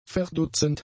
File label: "verdutzend", German; adjectives